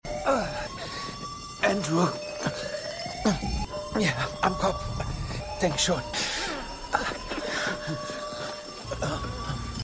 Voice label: NASA Controller; For All Mankind